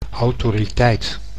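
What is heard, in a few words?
"autoriteit", Dutch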